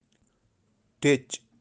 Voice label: "Tech" moorhen, birds of kashmir